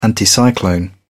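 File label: "anticyclone", British English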